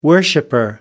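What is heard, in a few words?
"worshiper", British English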